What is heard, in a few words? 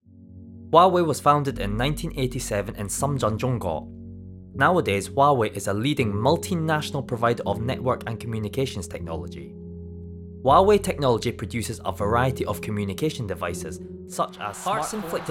corporate